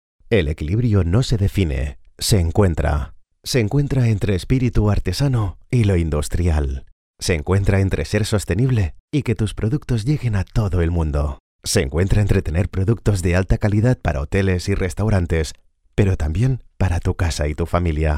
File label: locutor spanish voiceover